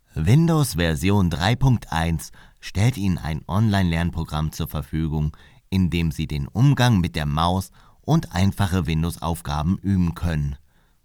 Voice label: E-Learning